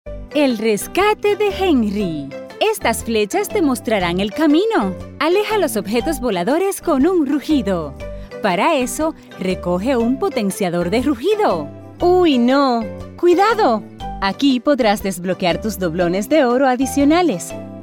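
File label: Spanish Latin America female voice over, upbeat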